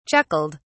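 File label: "chuckled", British English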